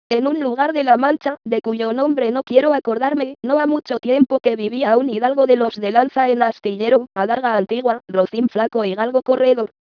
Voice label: cool speaking, síntesis de la voz mediante ordenador; Audio generado con coolspeaking (Año 2001)